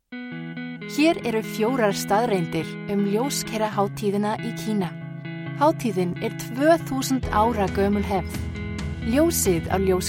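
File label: Icelandic, Commercial; GoLocalise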